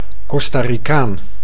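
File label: "Costarikaan", Dutch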